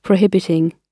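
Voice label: "prohibiting", British English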